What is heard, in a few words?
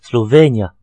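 "slovenia", Breton; gcompris; geography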